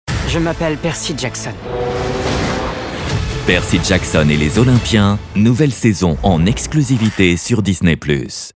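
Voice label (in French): aventure action, Voix off documentaire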